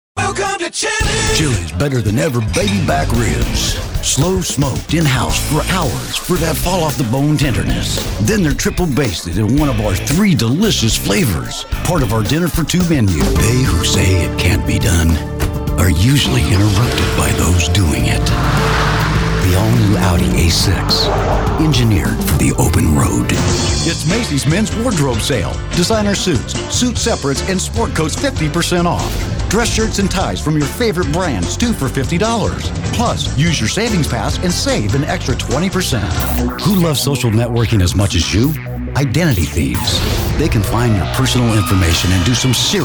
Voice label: Voiceover, Narration, Men; New York

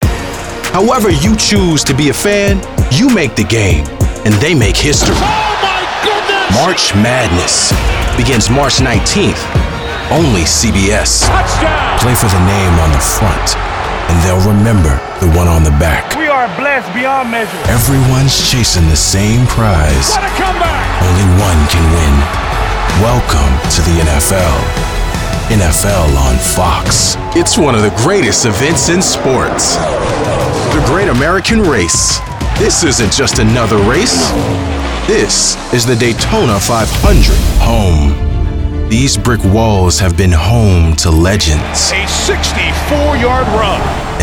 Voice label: Voiceover Promos Network/cable Men; New York

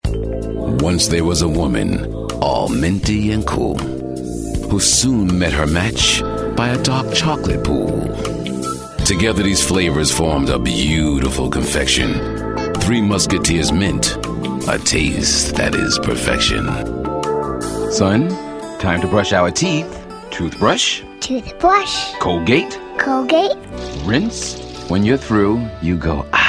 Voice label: Voiceover Commercial Men; New York; Commercial Demo

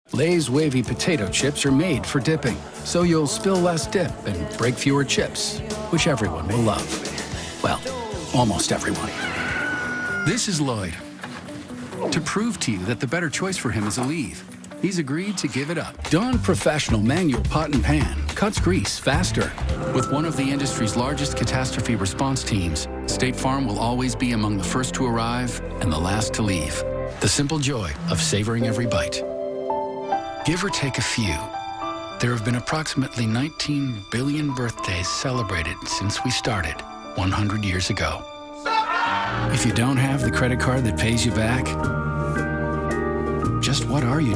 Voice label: Voiceover, Narration, Men; chicago

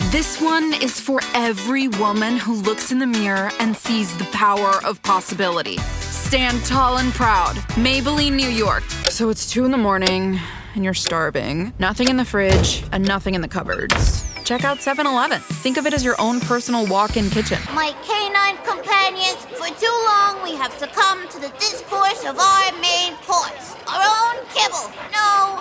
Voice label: voiceover foreign language spanish; chicago

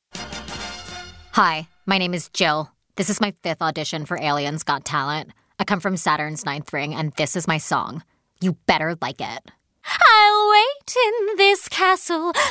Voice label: Voiceover, Animation; New York; Animation Demo

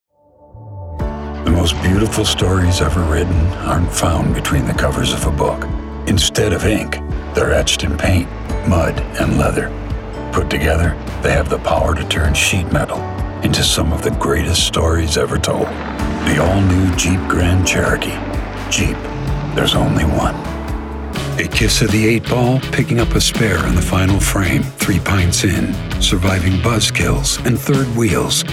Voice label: Voiceover, Commercial, Men; new york

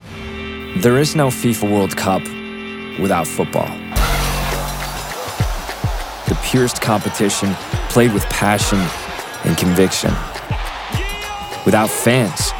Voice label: voiceover commercial men; new york

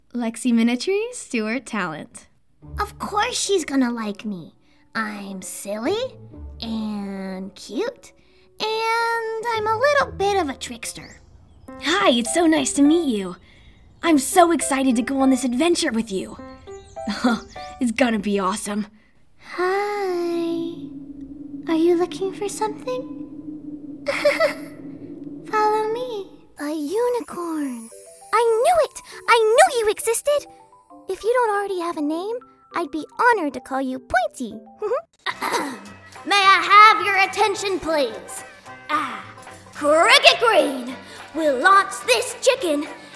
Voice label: Voiceover Animation; New York

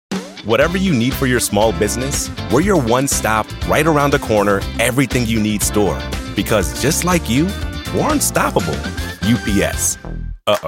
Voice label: voiceover animation; Chicago

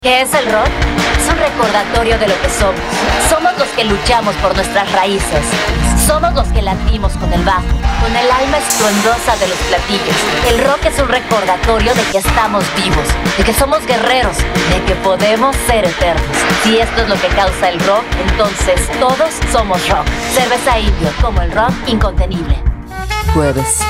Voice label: Voiceover, Foreign Language, Spanish; Spanish Commercial; chicago

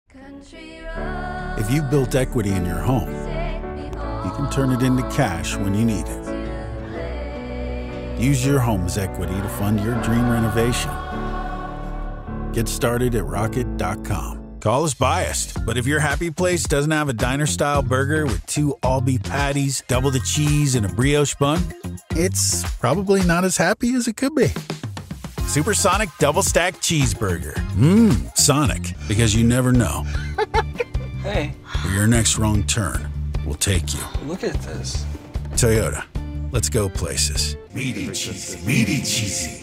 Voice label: Voiceover Political Men; chicago

